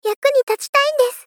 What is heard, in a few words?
Polite Others Yakuni tachitai n desu; Rei Yumesaki